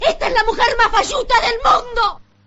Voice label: mujer

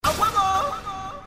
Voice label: a huevo notification sound

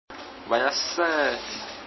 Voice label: nota de voz

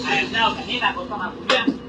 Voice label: hay hablao caleta

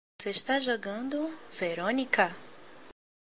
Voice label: nota de voz

